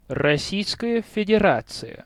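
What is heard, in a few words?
"Rossiyskaya Federatsiya", Russian; Российская Федерация; Rossijskaja Federazija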